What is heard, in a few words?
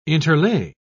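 "interlay", English, British English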